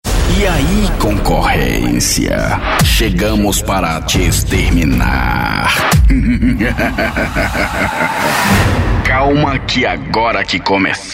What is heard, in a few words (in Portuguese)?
LOCUTOR